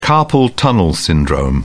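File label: "carpal tunnel syndrome", British English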